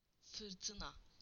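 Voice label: "storm", Turkish; PictureDictionary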